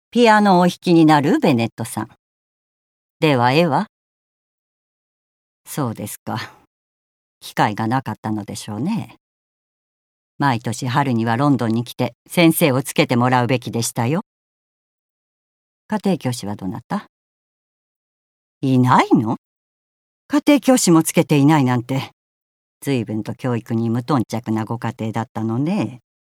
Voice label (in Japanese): 厳格